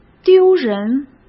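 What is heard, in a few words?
"丢人", Mandarin Chinese; chinese-flashcards; hsk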